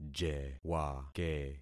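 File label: "jewake", concatenated syllables; Affixing experiment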